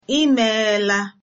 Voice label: thanks, igbo